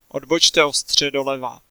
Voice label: Sharp Left, Czech, male

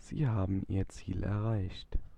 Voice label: Arrive, German male

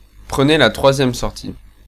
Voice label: French male

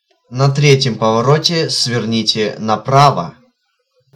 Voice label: 3rd Right, Russian, male

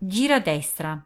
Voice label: Turn Right, Italian female

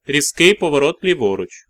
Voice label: Sharp Left, Ukrainian, male

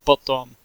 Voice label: Then, Czech male

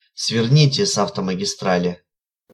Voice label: Mw Exit, Russian, male

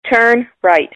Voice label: Turn Right, American English, female

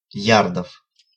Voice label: Yards, Russian, male

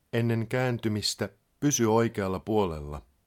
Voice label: Ah keep right, Finnish, male; Opas

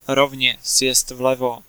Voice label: Ah Exit Left, Czech male

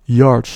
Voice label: Yards, Dutch male

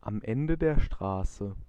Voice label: road end, German, male

